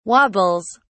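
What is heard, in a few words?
"wobbles", English, British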